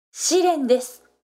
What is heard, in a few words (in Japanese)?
josei shiren desu